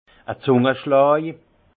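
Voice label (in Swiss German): "zungaschlaj", alsace Haut-Rhin; vin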